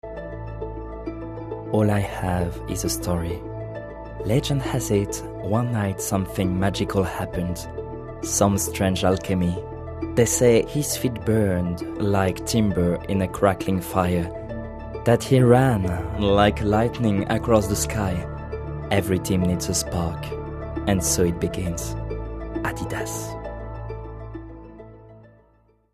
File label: radio advert english french accent